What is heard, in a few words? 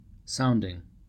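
"sounding", US English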